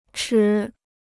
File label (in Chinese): "持", Chinese